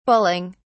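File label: "bulling", British English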